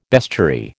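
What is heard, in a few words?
"bestiary", American English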